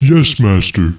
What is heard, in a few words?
acknowledge, ogre; ogre-mage; Yes master.